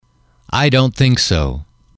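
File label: i dont think so, English, male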